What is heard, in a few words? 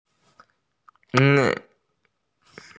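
"bi4ye4", Chinese, female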